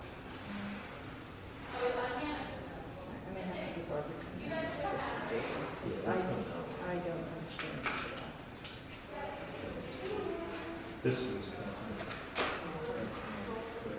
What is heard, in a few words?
high pitched voice sounded like You Who